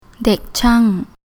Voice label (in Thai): "Dèk Châang", Thai